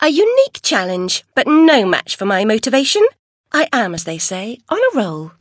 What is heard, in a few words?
cheer, voice commands; svencoop; Alice